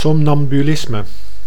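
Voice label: "somnambulisme", Dutch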